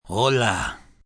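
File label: Hello Spanish male; Greetings; Greetings and Goodbye; VoiceBox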